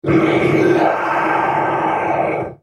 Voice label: ultimate effort, en US; Urgot